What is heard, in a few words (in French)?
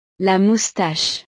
"la moustache", frances; partes del cuerpo